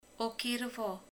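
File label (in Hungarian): "keresztapa", Hungarian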